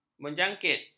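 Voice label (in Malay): "menjangkit", Malay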